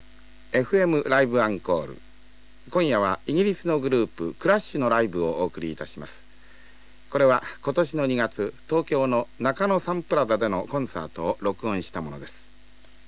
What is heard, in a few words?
Announcer; Nakano Sun Plaza Hall, Tokyo